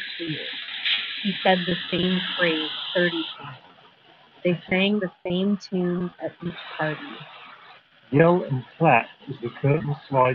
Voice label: Street microphone sample; Tozo NC2